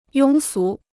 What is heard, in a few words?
"庸俗", Chinese